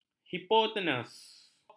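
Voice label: "hipotenus", Malay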